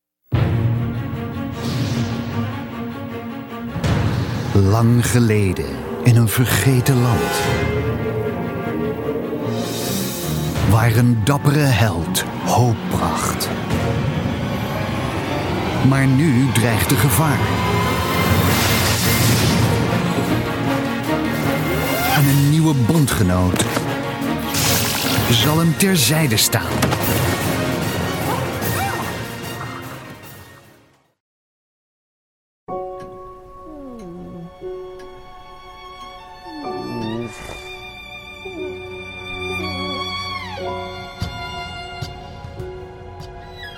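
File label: Commercial, Dutch; GoLocalise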